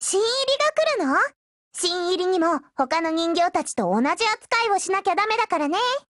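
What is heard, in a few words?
BUILD OVER, Japanese, Voice; Liberator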